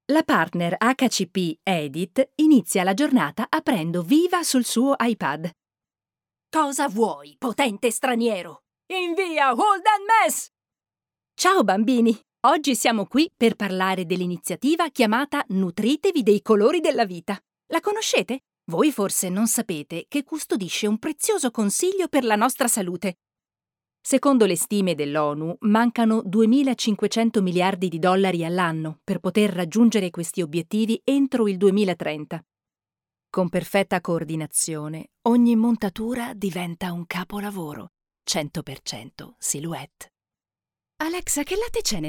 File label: italian commercial